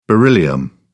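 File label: "beryllium", British English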